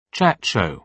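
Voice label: "chat show", British English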